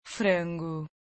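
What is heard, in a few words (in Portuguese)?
"chicken", Portuguese; FoodChain.activity; pt BR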